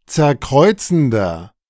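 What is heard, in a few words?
"zerkreuzender", German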